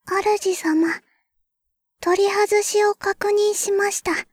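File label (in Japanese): ハードウェアの取り外し, システムボイス; ベル・カールステッド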